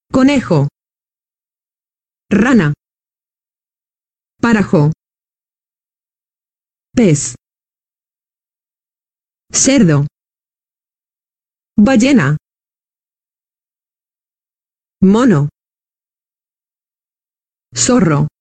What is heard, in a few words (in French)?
audio animaux espagnol